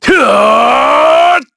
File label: Casting, kr; Shakmeh; kingsraid; voices heroes